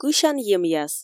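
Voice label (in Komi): кысян емъяс, Komi, female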